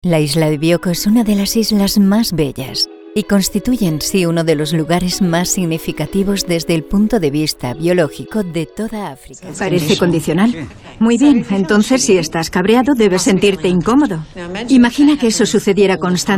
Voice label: spanish documentaries